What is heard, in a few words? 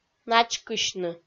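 "начкысьны", Komi, female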